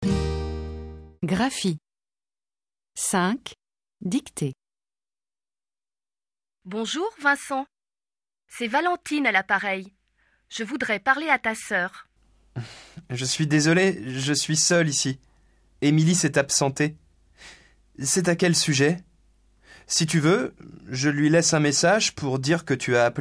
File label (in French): dictee conversation telephonique